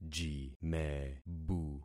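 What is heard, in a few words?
"jimebu", concatenated syllables; Affixing experiment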